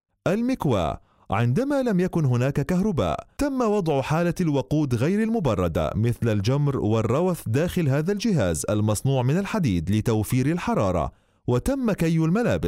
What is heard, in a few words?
arabic